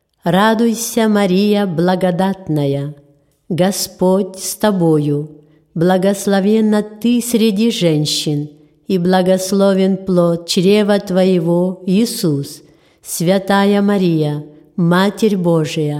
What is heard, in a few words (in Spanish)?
ave maria ruso normal